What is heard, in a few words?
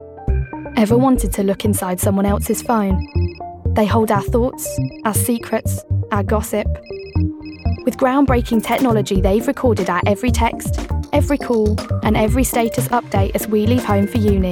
Doc Promo; Channel 4